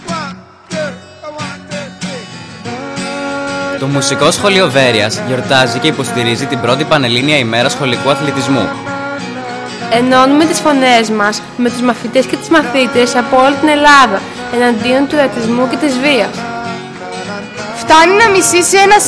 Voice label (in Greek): spot; panellhnia hmera athlitismoy Moysiko Sxoleio Veroias